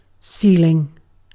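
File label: "ceiling", British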